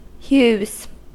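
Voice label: "hues", US English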